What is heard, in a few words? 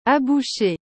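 "aboucher", French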